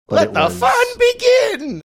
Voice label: funny